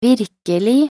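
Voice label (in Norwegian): "virkelig", Norwegian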